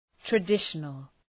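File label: "traditional", English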